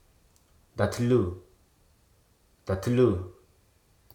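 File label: "invert", English, Hruso dictionary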